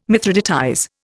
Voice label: "mithridatize", Indian